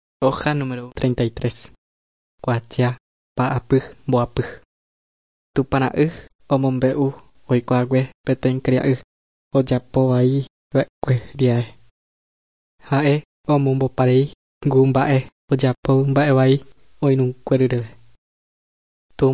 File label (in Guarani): guarani mbya; good news and words of life; bible